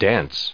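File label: "dance", US English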